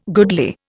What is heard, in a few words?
"goodly", Indian